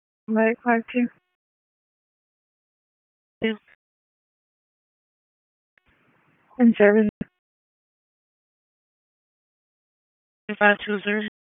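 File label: EMS DISP, radio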